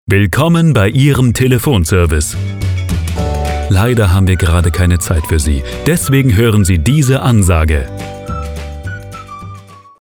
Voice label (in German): Sprechprobe Telefon